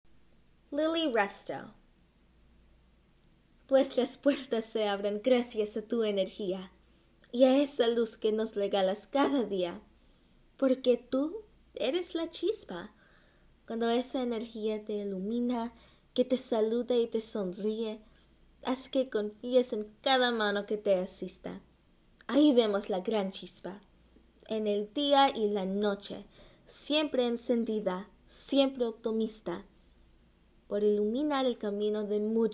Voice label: SPANISH